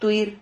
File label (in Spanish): "tuir", Silabas